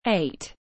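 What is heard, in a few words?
"eight", English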